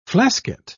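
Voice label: "flasket", British English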